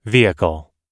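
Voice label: "vehicle", English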